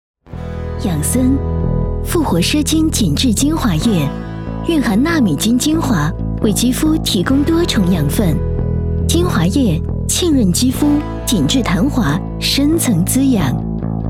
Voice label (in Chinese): 广告 化妆品 年轻; 氧森